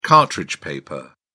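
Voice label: "cartridge paper", English, British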